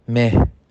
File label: "Mai", Farsi